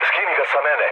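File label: Serbian aircraft damaged mood low, speech; War Thunder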